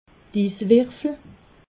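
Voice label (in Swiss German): "is werfel", Alsatian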